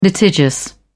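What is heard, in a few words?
"litigious", British English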